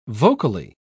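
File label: "vocally", British English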